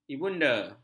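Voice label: "ibunda", Malay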